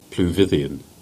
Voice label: Cornish; Mithian; place names